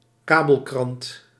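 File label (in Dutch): "kabelkrant", Dutch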